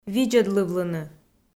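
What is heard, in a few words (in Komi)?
"видзӧдлывлыны", Komi, female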